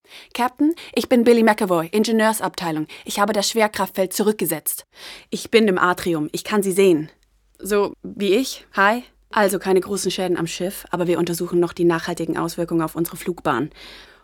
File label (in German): Viel Intensität Ingenuerin